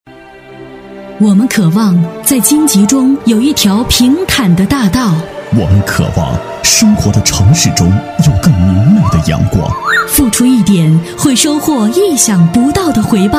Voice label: 女 宣传片配音【大气激昂】

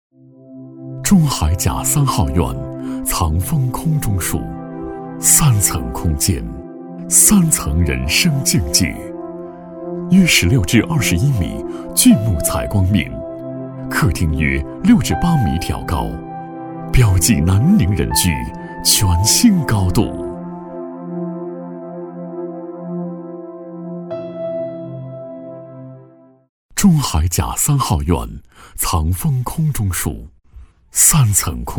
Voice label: 男 广告 低沉质感; 中海甲叁號院藏峰空中墅

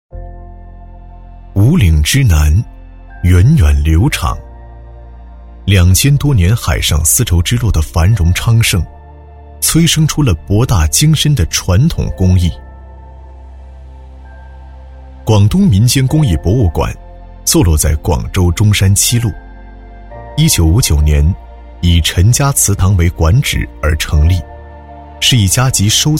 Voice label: 男, 神秘磁性, 大气主流 质感磁性; 广东民间工艺博物馆

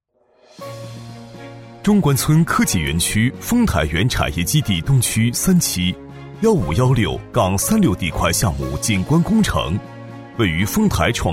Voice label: 男 工程介绍, 厚重有力 大气浑厚

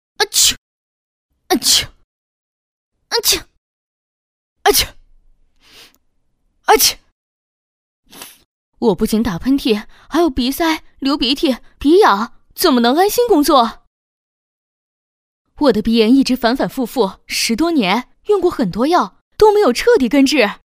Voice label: 女, 角色, 鼻炎职场人; 素人自然

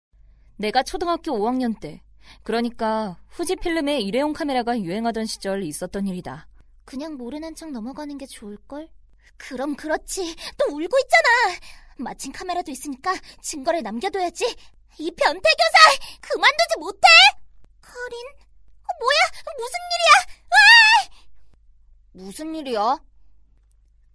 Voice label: 韩语女声 信息流游戏

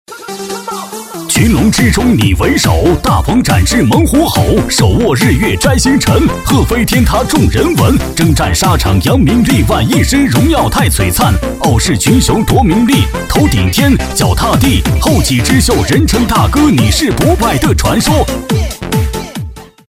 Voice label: 男 喊麦DJ风格 动感有节奏; 明亮硬朗 激情力度

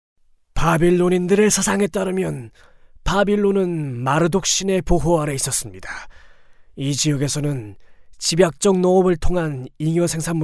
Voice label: 韩语男声

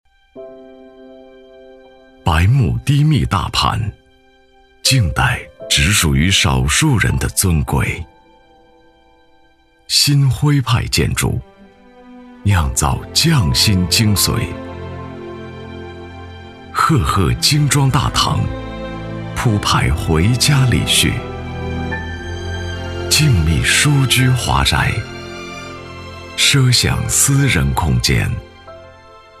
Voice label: 男 房地产广告 舒缓 温暖; 舒缓抒情; 《新徽派建筑》

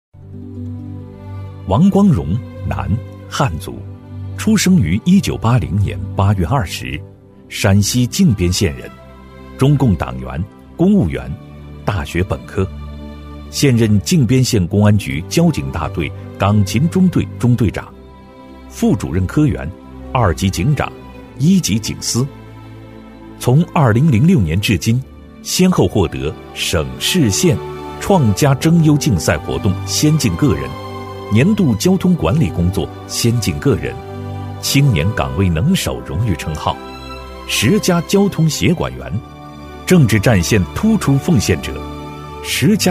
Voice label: 男, 人物专题; 稳重底蕴; 大气浑厚

